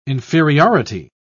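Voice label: "inferiority", British English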